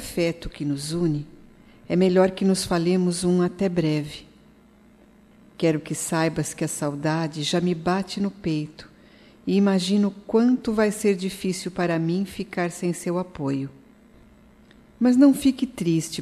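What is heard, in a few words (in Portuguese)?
DESPEDIDA (DE QUEM VAI), female, Telemensagens, Só Voz; Telemensagens 2017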